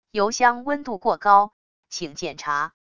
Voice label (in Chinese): 语音, 语音报警器; EJM 560